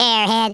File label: "stupid", Redneck